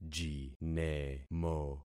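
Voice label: "jinemo", concatenated syllables; Affixing experiment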